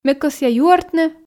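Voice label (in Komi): ме кӧсъя юӧртны, Komi, female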